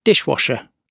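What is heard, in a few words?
"dishwasher" British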